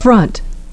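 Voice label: "front", English pronunciation; frʌnt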